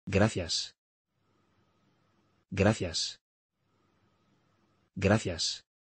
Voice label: Gracias, Thank you in Spanish, Spanish Pronunciation